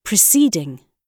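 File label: "preceding", British English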